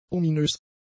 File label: "ominös", German; adjectives